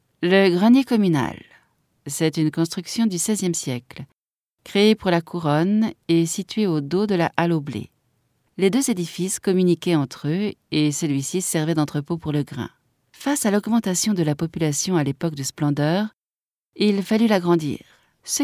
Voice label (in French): audioguia, frances; audioguia baeza